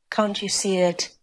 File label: Voice Acting es F